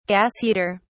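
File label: "gas heater", British English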